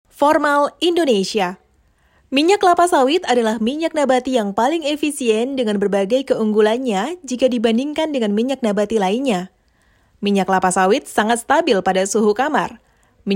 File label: Formal Indonesia